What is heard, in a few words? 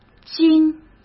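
"金", Mandarin Chinese; chinese flashcards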